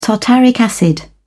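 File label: "tartaric acid", British English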